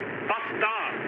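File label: German near waypoint mood med, speech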